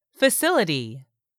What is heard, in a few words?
"FACILITY", english; 3000 words